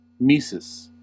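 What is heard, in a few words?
"tmesis", US English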